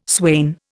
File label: "swain", Indian